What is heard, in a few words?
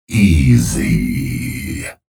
chatter positive; clutch; frag